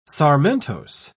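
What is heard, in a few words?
"sarmentose", British English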